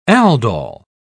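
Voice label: "aldol", British English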